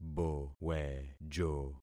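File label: "bowejo", concatenated syllables; Affixing experiment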